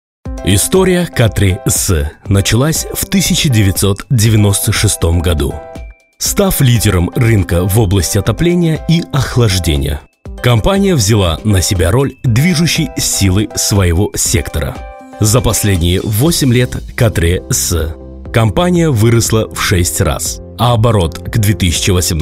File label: russian voice over male narration